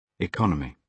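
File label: "economy", British English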